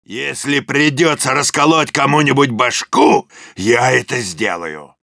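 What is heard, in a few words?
jeers, Russian; Soldier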